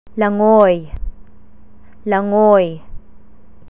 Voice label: "langoy", Tagalog